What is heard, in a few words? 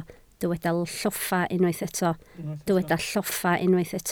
"lloffa", Welsh